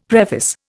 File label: "preface", Indian; Dictionary